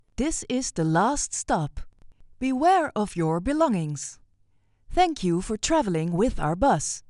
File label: Calming and Advisory Public Transport English Mid European